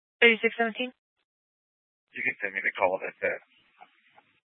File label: Police Department Dispatch, scanner